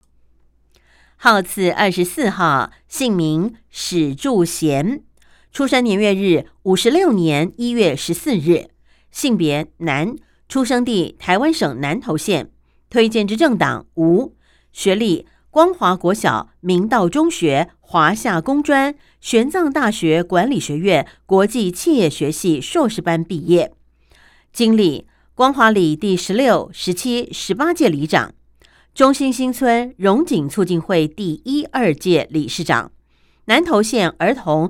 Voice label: 國語; 南投縣; 有聲公報; 縣議員

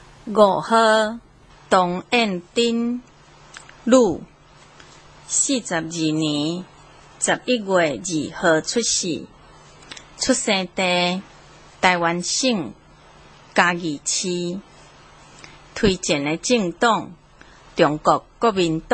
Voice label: 台語; 第七選區

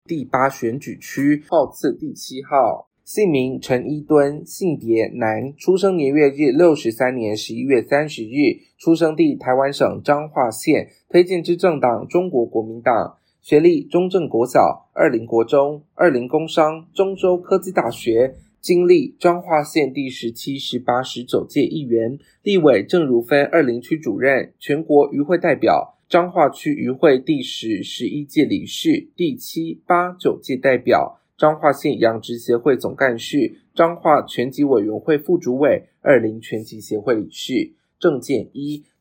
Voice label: 國語; 彰化縣; 縣議員; 第八選舉區; 有聲公報

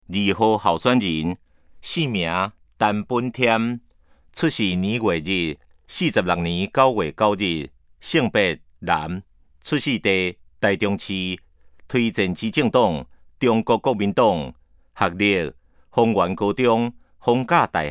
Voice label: 台語; 臺中市; 有聲公報; 市議員; 第04選區

